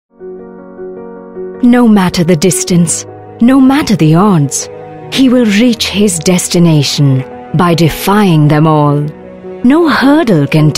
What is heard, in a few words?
English, female voice